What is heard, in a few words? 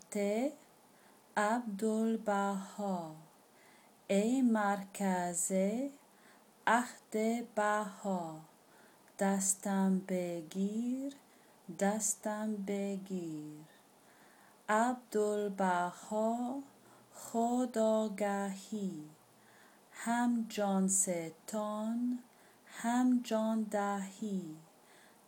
persian pronunciation fast; hold thou my hand